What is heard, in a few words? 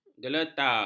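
"geletar", Malay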